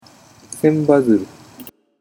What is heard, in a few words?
"senbazuru", Japanese